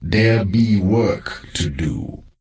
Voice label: NPC Death Knight Troll Male Farewell